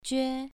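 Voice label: "jue", Mandarin Chinese, second tone, pronunciation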